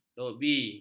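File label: "lobi", Malay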